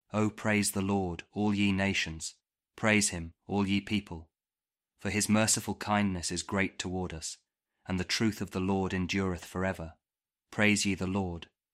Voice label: psalm kjv king james audio bible